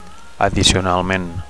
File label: "addicionalment", Catalan; in addition